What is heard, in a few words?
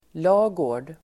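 "lagård", Swedish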